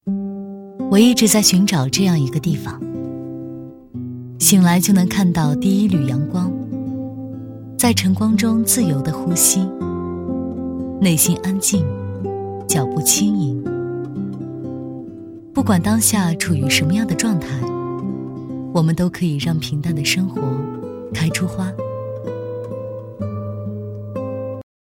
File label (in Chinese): 旁白类